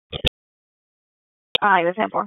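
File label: Dispatch 1, P25; wake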